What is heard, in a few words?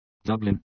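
"Dublin", English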